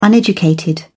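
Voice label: "uneducated", British English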